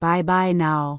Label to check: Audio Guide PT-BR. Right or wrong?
Wrong